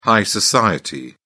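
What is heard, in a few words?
"high society", English, British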